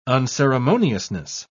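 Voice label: "unceremoniousness", British English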